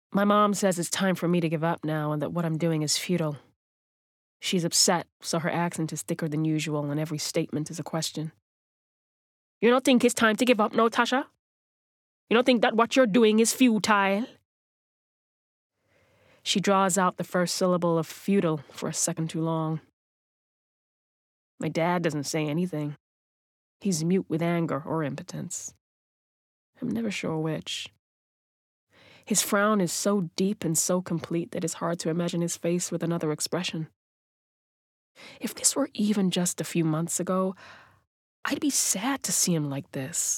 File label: Audiobook Reel (US); Natural Accents: London, Neutral